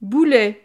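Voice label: "boulet", French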